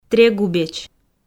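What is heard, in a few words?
"трегубеч", Komi, female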